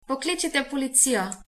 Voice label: police, slovenian